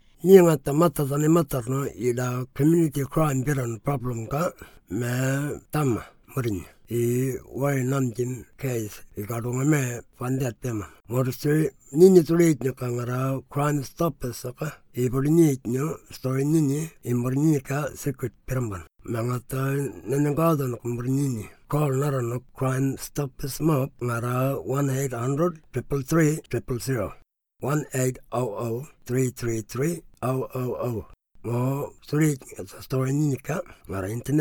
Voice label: Murrinh Patha translation